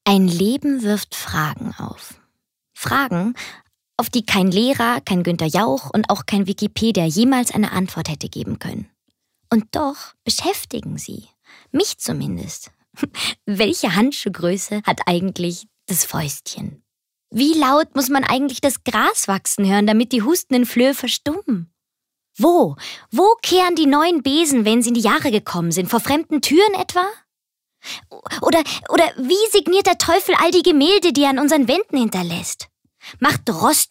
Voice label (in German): Schauspiel Dynamisch